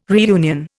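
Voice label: "reunion", Indian English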